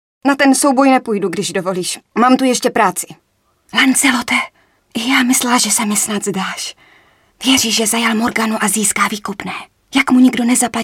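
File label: female Czech drama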